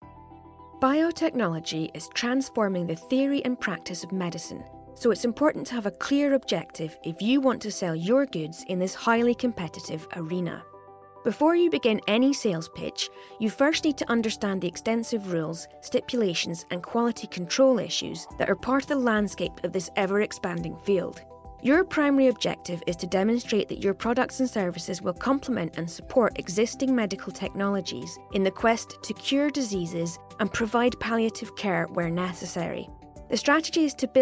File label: corporate